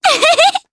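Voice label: Happy, Japanese; voices heroes jp; May; kingsraid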